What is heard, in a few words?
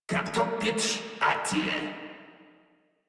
Patrol Crewmen en; Warframe; Corpus Crewmen; Crewman D